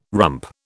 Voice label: "rump", British